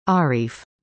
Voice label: "arief", english; indonesian boy names

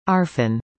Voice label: "arfan", english; indonesian boy names

